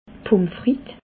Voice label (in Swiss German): "pomfritt", alsace